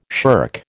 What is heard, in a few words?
"shirk", American